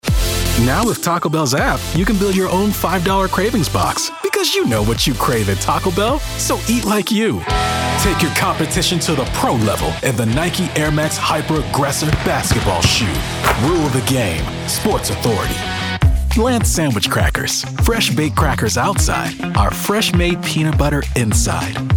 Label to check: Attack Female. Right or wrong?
Wrong